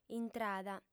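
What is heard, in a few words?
"intrada", Sardinian, female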